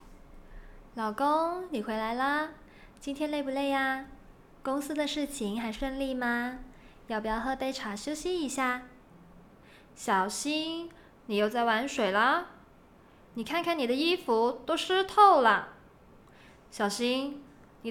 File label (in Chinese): 台湾腔女声